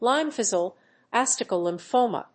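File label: "lymphoplasmacytic lymphoma", English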